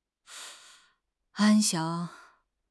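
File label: "安详", Chinese; HSK6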